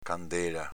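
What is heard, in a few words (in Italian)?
"candéla" Veneto dialect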